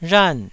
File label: "rhan4", Taiwanese